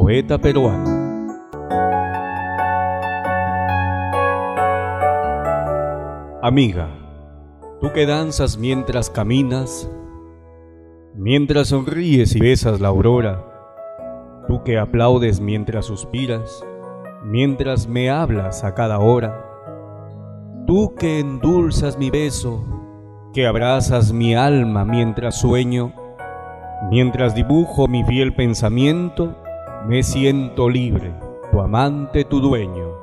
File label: poema voice; amiga